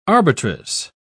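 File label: "arbitress", British English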